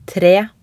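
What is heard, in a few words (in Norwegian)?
"tre", Norwegian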